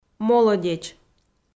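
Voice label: "молодеч" Komi, female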